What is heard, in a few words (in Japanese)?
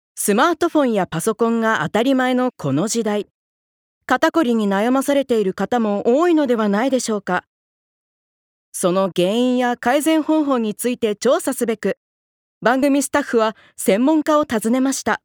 ナレーション